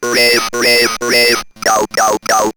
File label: Robot Rave, Go Go Go, robot vox; X-Static Goldmine